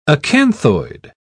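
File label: "acanthoid", English, British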